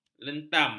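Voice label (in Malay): "lentam", Malay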